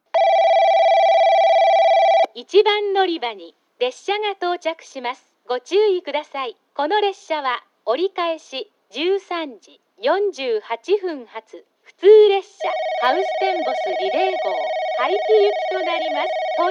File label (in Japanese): 1番のりば接近放送; ハウステンボス; 1番のりば接近放送（折り返し・ハウステンボスリレー号 早岐行き）